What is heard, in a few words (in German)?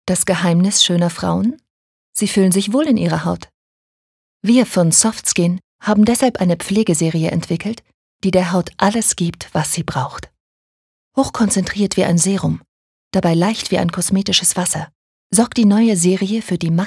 Soft Skin Sanft